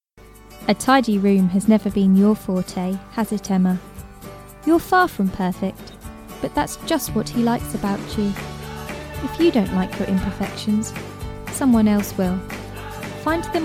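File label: promo english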